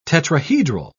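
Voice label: "tetrahedral", English, British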